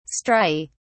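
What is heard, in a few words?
"striae", English, British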